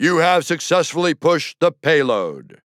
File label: Success Payload Push, Announcer; Bruce; Paladins